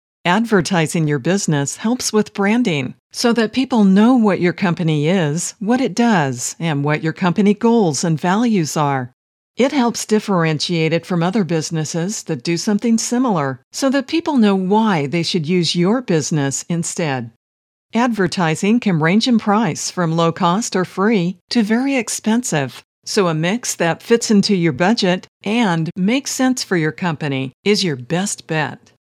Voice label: Explainer Video Narration